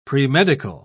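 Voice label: "premedical", English, British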